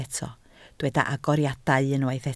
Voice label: "agoriadau", Welsh

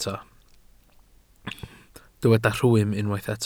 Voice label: "rhwym", Welsh

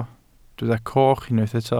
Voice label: "coch", Welsh

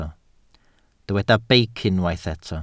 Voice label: "beic", Welsh

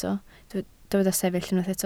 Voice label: "sefyll", Welsh

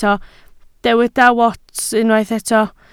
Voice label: "wats", Welsh

